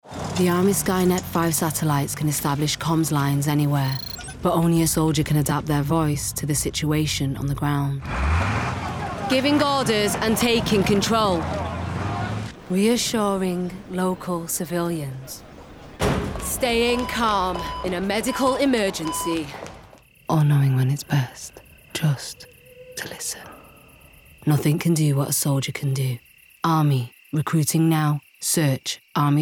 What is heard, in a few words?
Radio; Army 30s Radio Full Mix; Voice Army Endline